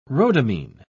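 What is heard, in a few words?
"rhodamine", British English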